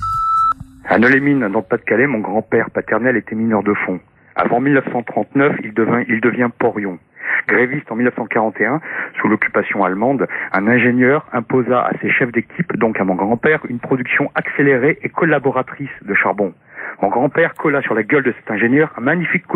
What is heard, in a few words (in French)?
repondeur; Victoire du Non